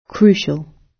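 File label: "crucial", British English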